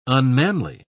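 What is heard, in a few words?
"unmanly", English, British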